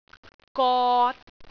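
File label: "got3", Cantonese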